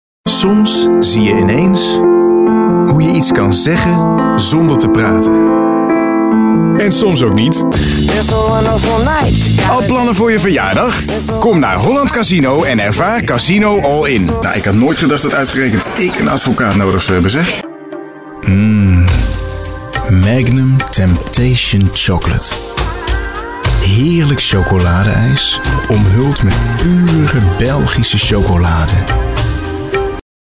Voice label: commercials